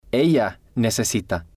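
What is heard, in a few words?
"ella necesita", Spanish, male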